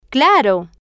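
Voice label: "claro", Spanish, female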